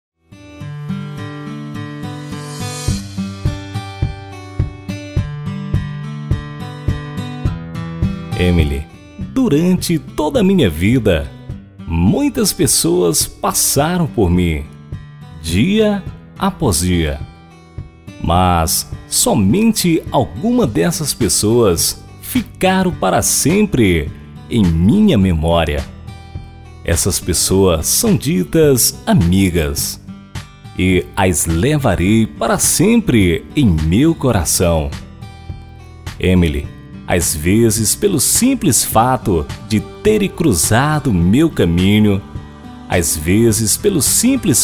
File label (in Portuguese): Mensagem De Amizade, Mensagens de Amor